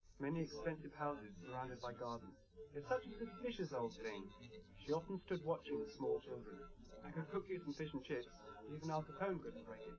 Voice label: male live rec; SiSEC10; underdetermined